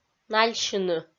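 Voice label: "нальсьыны", Komi, female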